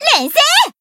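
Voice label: attack, Japanese; alma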